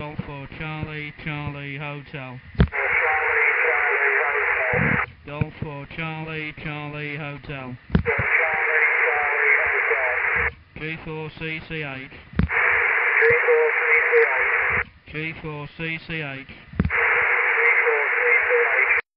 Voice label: test ssb